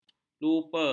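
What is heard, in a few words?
"lupa", Malay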